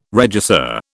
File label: "regisseur", British